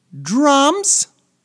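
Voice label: English drums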